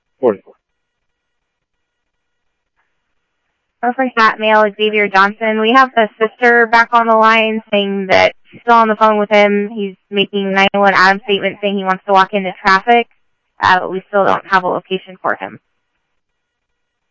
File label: Tempe Police, scanner audio; TEMPE PD A02; SimulcastF-Tempe